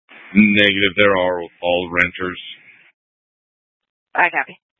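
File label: Incident Tactical 1, Scanner audio recording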